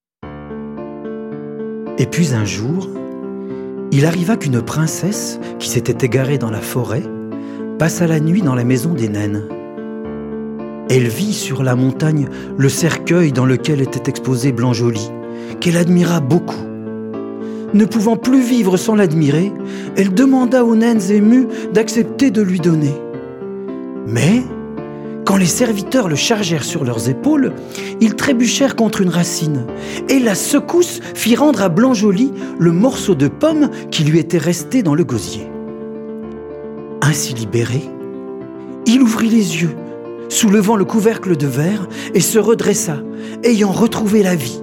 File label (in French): narration